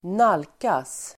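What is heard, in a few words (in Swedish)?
"nalkas", Swedish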